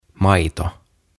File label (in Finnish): "Maito" Milk